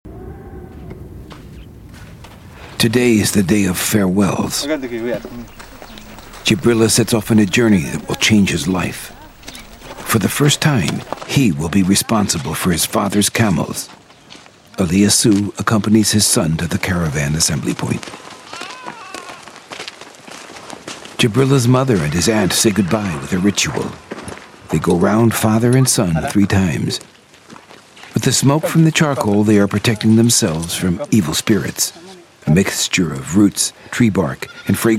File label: Narration